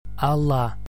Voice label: broad l (l), gaelic

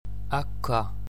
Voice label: broad c, gaelic

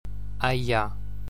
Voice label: slender gh & dh, gaelic

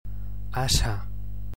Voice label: devoiced slender r, gaelic

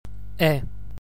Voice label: stressed e in monosyllables, gaelic

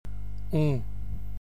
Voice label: nasalised u, gaelic

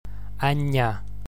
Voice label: slender nn (N'), gaelic

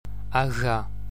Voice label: broad gh & dh, gaelic

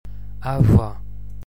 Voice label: broad bh & mh (Munster), gaelic

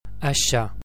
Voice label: slender s, gaelic

